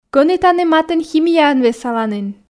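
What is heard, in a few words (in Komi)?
кӧні тані матын химияӧн весаланін, Komi, female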